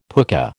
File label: "pooka", American